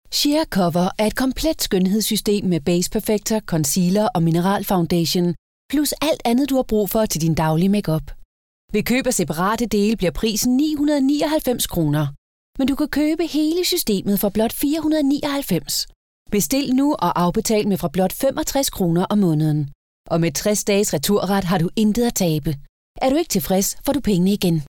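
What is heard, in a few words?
danish female narration, voice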